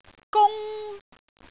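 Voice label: "gung1", Cantonese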